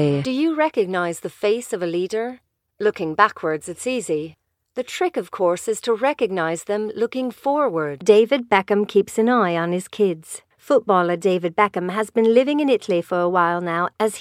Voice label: female English American and Irish international dialects; multiple accents; voices; devine voices